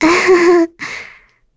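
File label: Vox Happy, English; Lavril; kings raid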